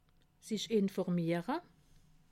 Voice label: "informiere", alsace

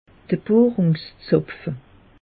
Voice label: "bohrungsizapfe", alsace; meteo

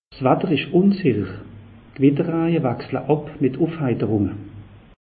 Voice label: "watter insicher", alsace; meteo

